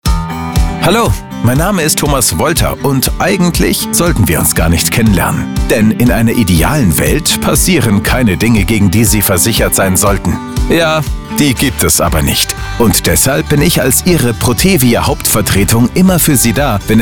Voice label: Beispielspot Versicherung

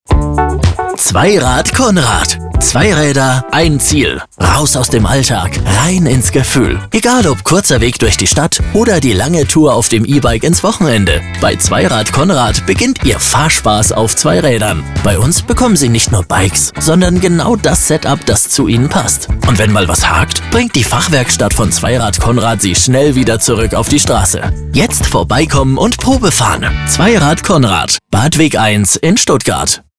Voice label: Beispielspot Fahrradhandel Werkstatt

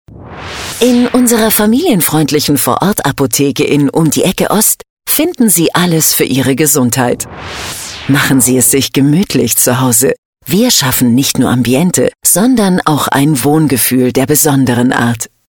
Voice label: vertrauensvoll heimelig

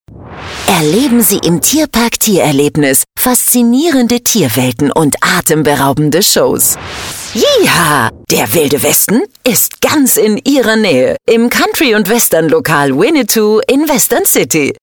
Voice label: begeistert aktionistisch

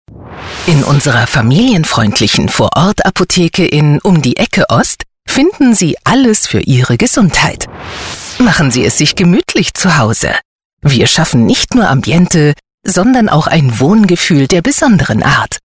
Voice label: vertrauensvoll heimelig

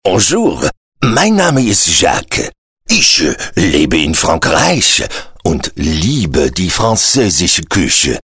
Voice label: franzoesisch

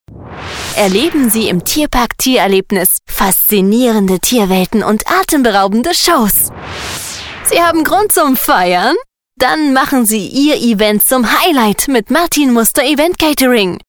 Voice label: begeistert aktionistisch

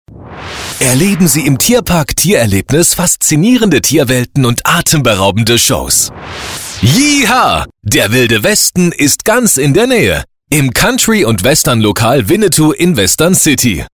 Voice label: begeistert aktionistisch

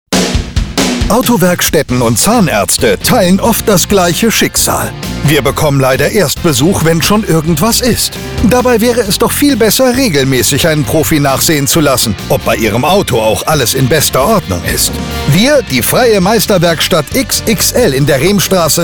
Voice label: Beispielspot KFZ Werkstatt

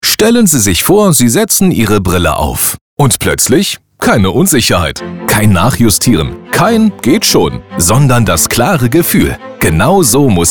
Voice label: Beispielspot Optiker

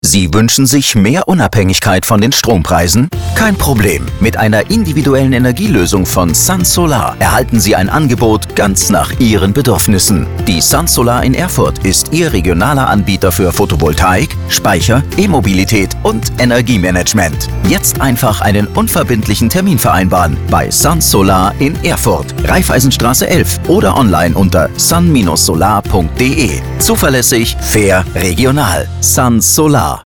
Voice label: Beispielspot Solarfirma

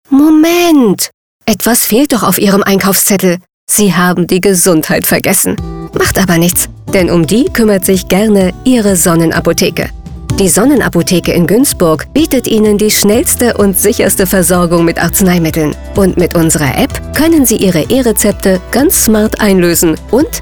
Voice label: Beispielspot Apotheke; instore loesungen; media beispielspots

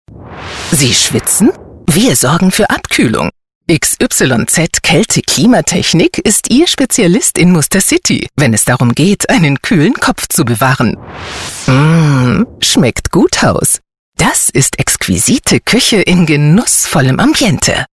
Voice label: schmunzelnd genussvoll

